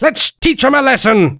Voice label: battlecry